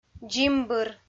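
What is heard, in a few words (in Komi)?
"дзимбыр", Komi, female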